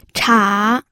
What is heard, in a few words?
"cha3", pinyin